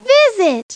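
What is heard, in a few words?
"visit", English; Treasure Galaxy; Learning Company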